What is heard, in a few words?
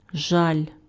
"Zalj", Ukrainian